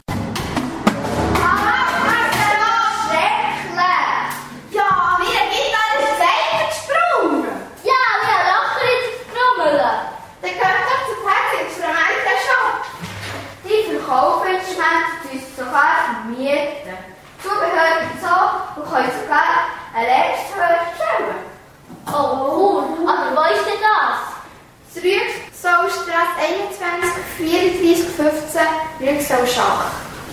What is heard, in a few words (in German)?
Instrumentenshop Werbung; Pats Instrumentenshop